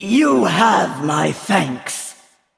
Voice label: Female Val'kyr Thanks